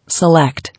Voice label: "Select", English

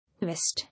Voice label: "West", English

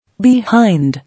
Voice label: "Behind", English

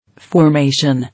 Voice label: "Formation", English

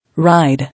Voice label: "Ride", English

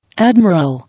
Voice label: "Admiral", English

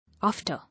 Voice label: "After", English

